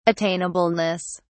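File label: "attainableness", English, British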